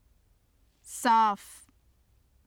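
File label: "saaf", Urdu; صاف; [sɑːf] (sāf); 清潔な（形）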